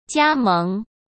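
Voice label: "加盟" Mandarin Chinese; hsk; chinese-flashcards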